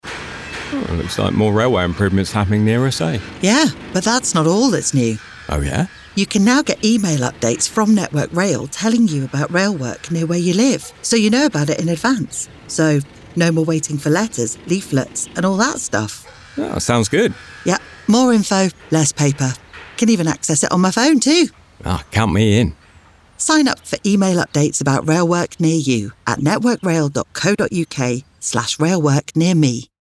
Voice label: Radio; Digital pre-notification - Radio 30sec; Digital Lineside Notification